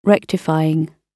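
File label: "rectifying", British English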